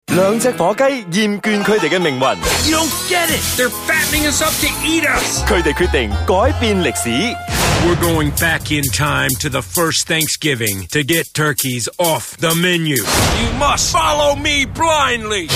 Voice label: Cantonese; NEF Free Birds

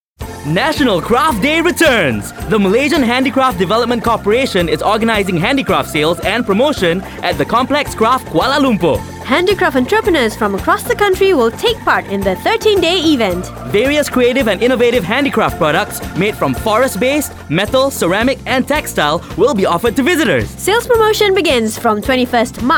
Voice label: ENGLISH; KRAF TANGAN

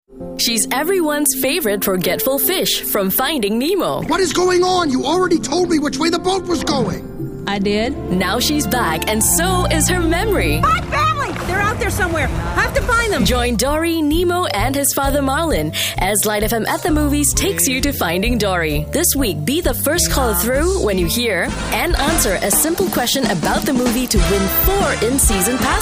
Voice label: Promo

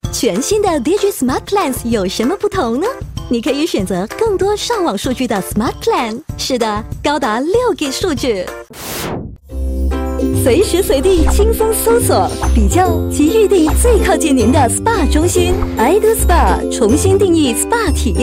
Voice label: Cheerful Young; Digi IdoSpa Wechat Paradigm Smartheart

